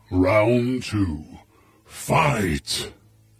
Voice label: battle narrator round 3 fight